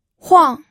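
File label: "huang", Mandarin Chinese, fourth tone; pinyin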